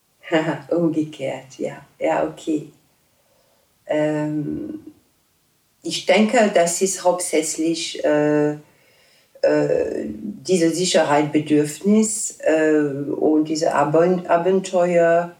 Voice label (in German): franzoesisch